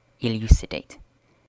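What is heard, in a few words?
"elucidate", British English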